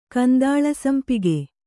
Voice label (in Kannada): "ಕಂದಾಳಸಂಪಿಗೆ", Kannada